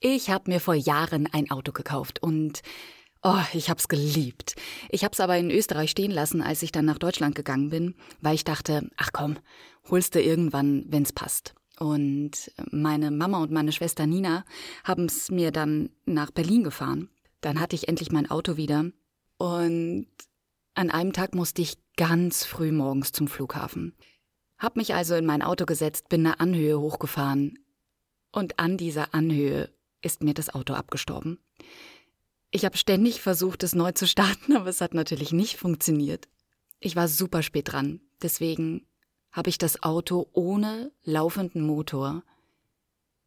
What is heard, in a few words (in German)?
Natuerliche Stimme; LOFT VOICES